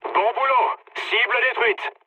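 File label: bomb success, mood low, French; War Thunder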